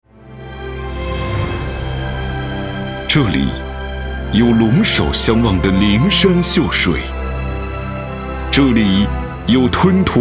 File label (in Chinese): 男 宣传片 城市宣传; 宁县